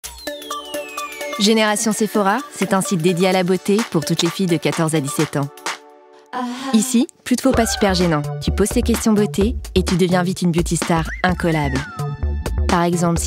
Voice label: Teenage voice